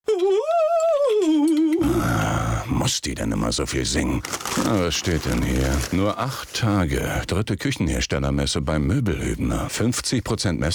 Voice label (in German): synchron radiowerbung; moebelhuebner